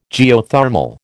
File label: "Geothermal", American